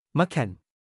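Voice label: "มะแข่น", Thai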